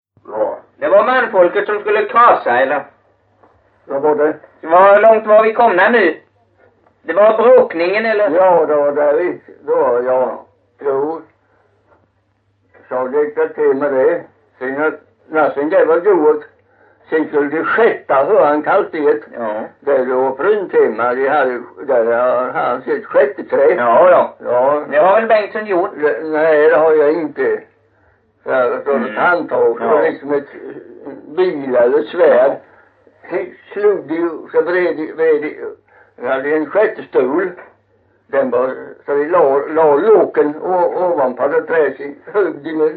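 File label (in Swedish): Kyrkhult Blekinge, dialekter; Folkminnen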